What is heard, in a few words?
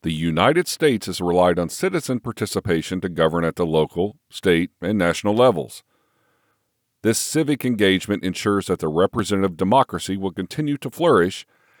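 audiobook american government; eLearning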